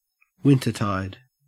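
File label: "wintertide", Australian English; pronunciations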